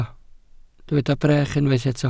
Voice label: "brech", welsh